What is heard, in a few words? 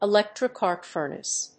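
"ELECTRIC ARC FURNACE", English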